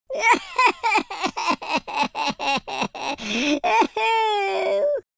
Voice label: sad, Welsh; speech

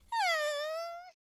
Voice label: sad, Southern Belle; speech

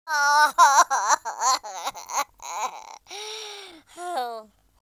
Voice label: sad

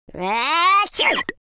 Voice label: idle, London Cabbie; speech

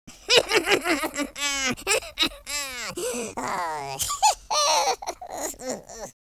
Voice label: happy, French Lover; speech

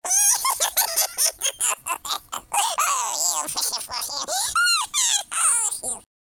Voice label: happy, speech, Alien; Worms3D

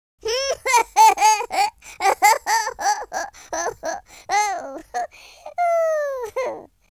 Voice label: happy, Gangsta; speech

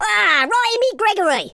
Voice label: leave me alone, London Cabbie; speech

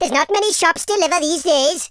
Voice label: drop, Welsh; speech

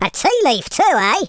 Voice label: collect, London Cabbie; speech

